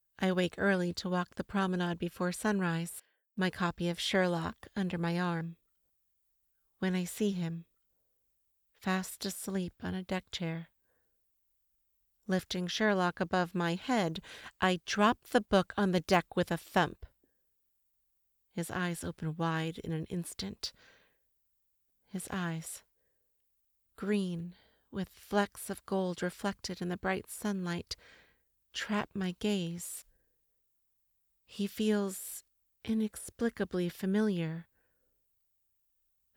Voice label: volume adj romance, narration; audiobook narration; M/F Dialogue - Historical Romance